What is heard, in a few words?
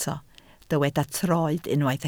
"troed", Welsh